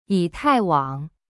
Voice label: "yi3tai4wang3", Chinese; cyberchinese